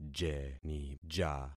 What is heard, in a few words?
"jenija", concat syllables; Affixing experiment